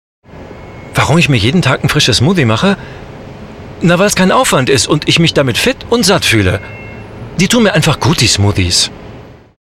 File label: Testimonial